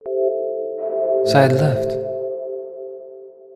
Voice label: audio channel side left